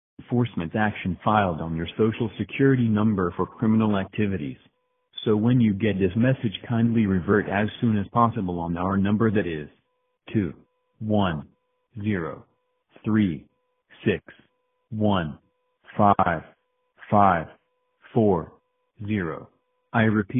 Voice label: voicemail